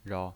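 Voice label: "rao", Mandarin Chinese pinyin voice, neutral tone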